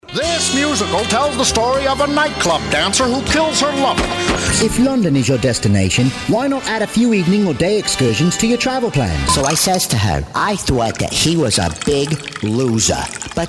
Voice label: Animation